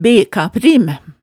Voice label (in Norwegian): "bekaprim", hemsedals maalet tale